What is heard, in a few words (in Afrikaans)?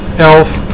"elf", Afrikaans